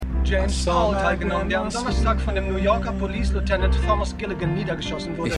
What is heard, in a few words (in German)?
TV Nachrichtensprecher; Winning Time